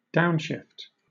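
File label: "downshift", British English; pronunciations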